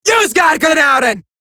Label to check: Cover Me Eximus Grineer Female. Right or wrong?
Right